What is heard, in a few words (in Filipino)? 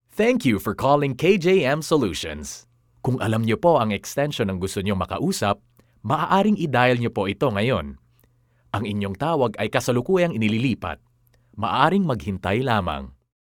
Tagalog (Friendly, Warm, Informative, Natural)